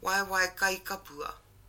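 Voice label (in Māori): "waewae kaikapua", Māori word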